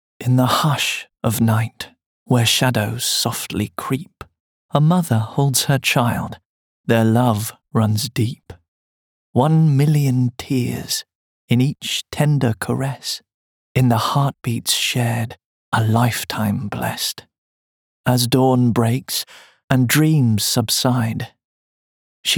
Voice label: male english rp accent mothers day poem